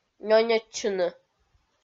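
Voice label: "нёньӧдчыны", Komi, female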